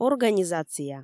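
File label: "организация", Komi, female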